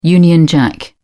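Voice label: "union jack", British English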